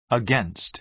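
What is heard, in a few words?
"against", American English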